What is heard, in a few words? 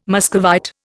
"Muscovite", Indian